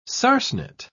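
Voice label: "sarcenet", British English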